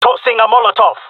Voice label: throwing molotov, jungle male; agents